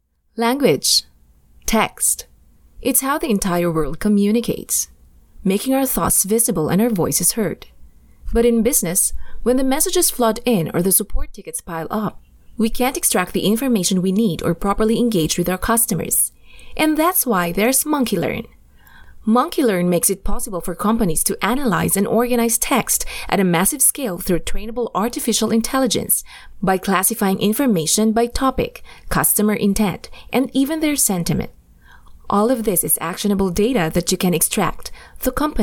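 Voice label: ENGLISH narration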